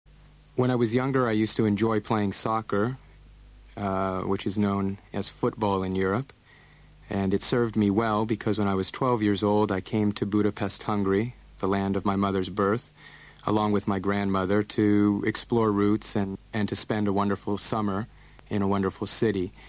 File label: american accent